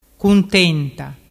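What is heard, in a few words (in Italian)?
"cuntenta", Vernazzese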